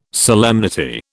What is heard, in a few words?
"solemnity", British